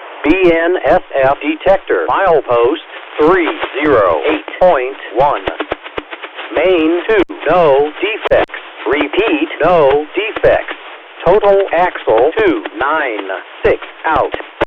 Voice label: Defect Detectors, Repeat; BNSF St. Croix Sub MP 308.1